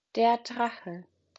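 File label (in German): "Drache", German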